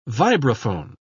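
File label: "vibraphone", English pronunciation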